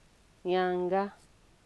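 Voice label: "yanga", Nigerian Pidgin, female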